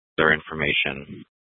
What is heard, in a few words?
Dispatch; Scottsdale; Sctdal PD; Thompson Peak high; Nth Dispatch